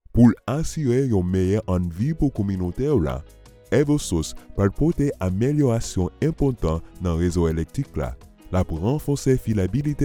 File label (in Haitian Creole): Haitian Creole